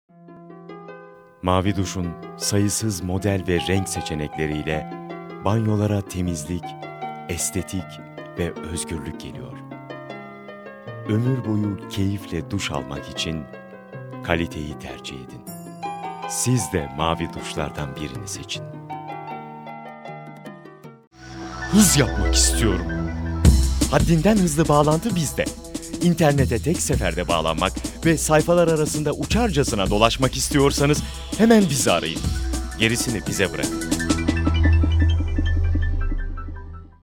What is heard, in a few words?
turkish m commercial